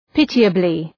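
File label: "pitiably", English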